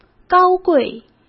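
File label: "高贵", Mandarin Chinese; hsk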